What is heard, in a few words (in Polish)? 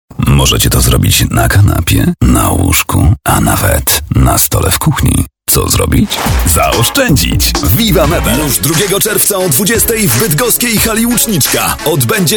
REKLAMA